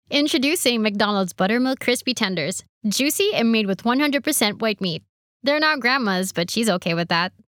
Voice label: Announcer; Mcdonalds